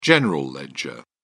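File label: "general ledger", British English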